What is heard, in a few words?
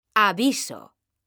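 "aviso", Spanish, female; mekanta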